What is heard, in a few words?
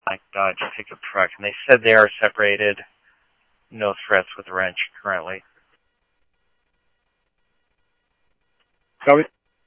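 Mesa Police Department, scanner audio trunk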